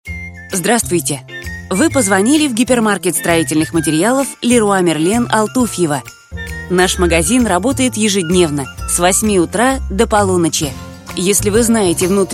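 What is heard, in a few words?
demo answering machine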